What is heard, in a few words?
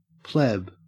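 "pleb", Australian English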